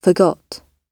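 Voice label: "forgot", British English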